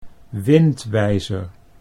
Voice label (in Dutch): "windwijzer", Dutch